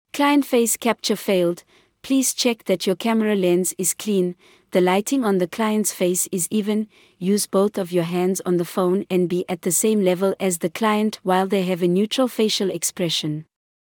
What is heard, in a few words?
please retry sound file, Vocal Guidance Audio; facetec sdk